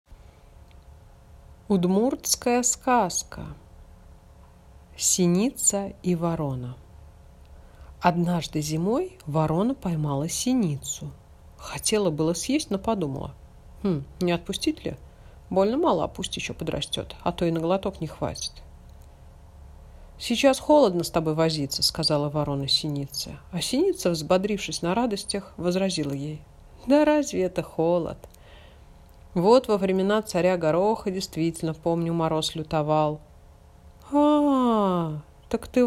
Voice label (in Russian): udmurtskaya skazka; Sinitsa i vorona